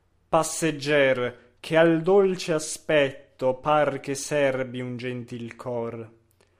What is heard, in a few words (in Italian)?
espressivo